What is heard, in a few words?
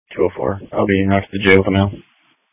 Police Tactical Encrypted; sdr